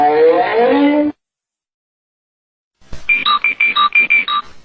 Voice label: goofy ahh nuh uh